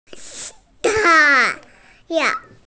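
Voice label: "tài yáng", Mandarin Chinese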